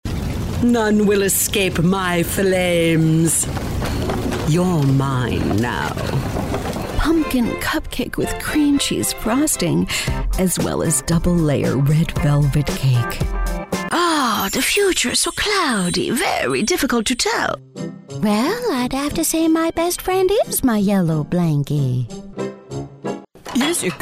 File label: Character